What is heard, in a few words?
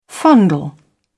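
"fondle", British English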